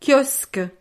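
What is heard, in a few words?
"kiosque", French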